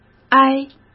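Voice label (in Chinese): "唉", Mandarin Chinese; hsk; chinese flashcards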